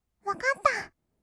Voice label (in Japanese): "わかったー", kawaii voice; ASMR; 色々なワンショット